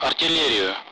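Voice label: Speech Russian; Allied Flight; artillery; DCS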